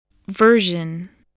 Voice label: "version", English